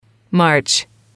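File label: "March", English, female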